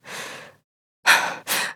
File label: Battle Adult